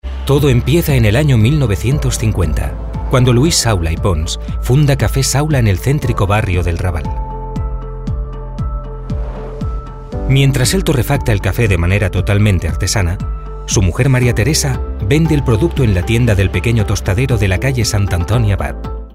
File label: male spanish documentary comercial; ideasonora